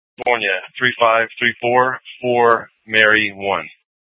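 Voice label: Scottsdale PD North Dispatch; Nth Dispatch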